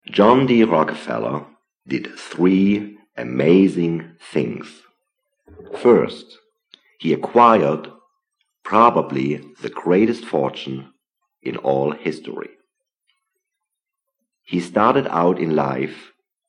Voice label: German